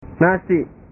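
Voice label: "nasi", Indonesian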